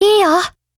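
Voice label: PHRASE, Japanese; SCW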